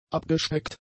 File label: "abgespeckt", German; adjectives